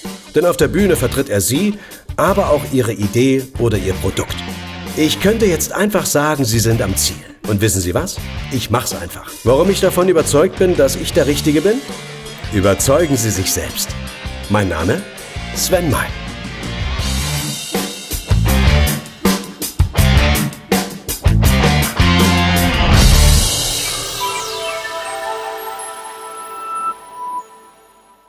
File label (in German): Werbung Sprache Moderator